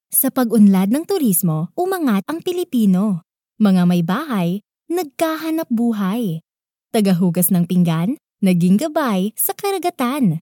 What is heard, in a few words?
Filipino Tagalog